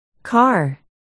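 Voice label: "car", spoken word, articulation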